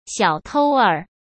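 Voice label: "小偷儿", Mandarin Chinese; chinese-flashcards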